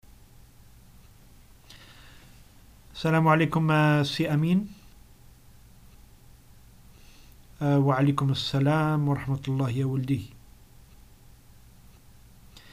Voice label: encounter, arabic north african algerian dialogues